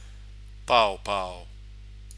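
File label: "paopao", Māori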